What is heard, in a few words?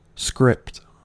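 "script", American English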